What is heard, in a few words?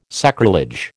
"sacrilege", American